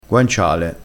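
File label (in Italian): "guanciale", dialettale crespinese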